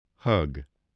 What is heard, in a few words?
"hug", voice spelling